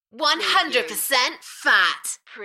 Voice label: UK female 100 Percent fat Enthusiastic; beanstalk audio